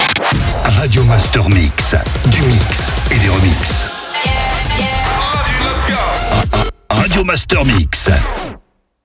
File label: Jingle Radio Master MIX - SPEED; Habillage